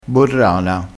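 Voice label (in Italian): "borrana", vocabolario dialettale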